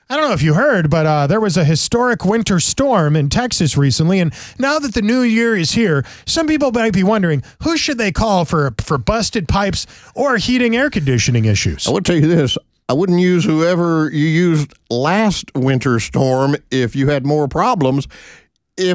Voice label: RADIO AD; nicks plumbing radio ads